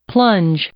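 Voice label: "plunge", verb, English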